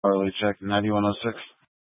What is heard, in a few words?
Sheriff Dispatch; Mesa County